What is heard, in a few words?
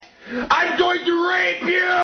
guy yells at cats